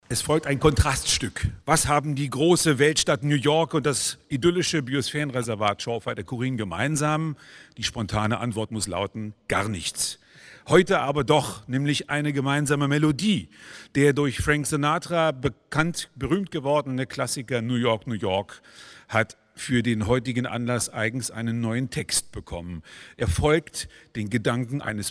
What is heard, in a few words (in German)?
Ansage; New York - Chorin; Waldgebiet des Jahres 2023